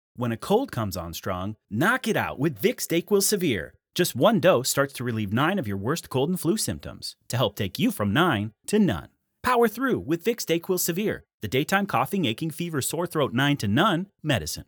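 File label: Commercial; Vicks DayQuil Severe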